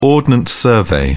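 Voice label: "ordnance survey", English, British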